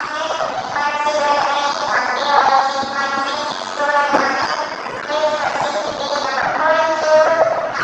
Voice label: announce loop; ambience